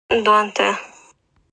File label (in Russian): "Дуэнтэ", нанайский; транскрипция [ду’энтэ]; Тайга